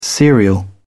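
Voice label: "serial", British English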